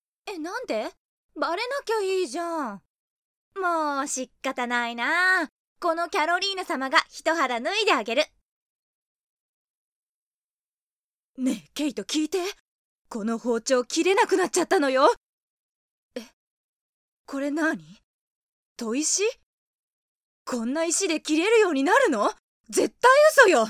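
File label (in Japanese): aoi kokoro vs drama, Japanese voice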